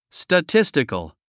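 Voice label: "statistical", English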